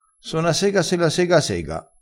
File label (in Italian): "so na sega", crespinese